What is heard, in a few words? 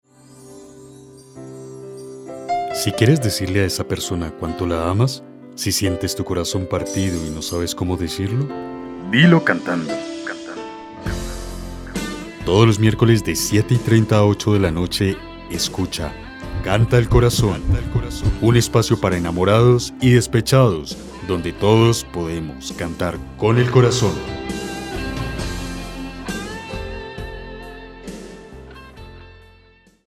promo